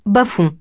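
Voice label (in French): "bas-fond" French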